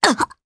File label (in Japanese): Damage, jp; Valance; kingsraid